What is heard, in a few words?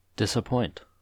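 "disappoint", American English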